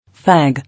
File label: "Fag", English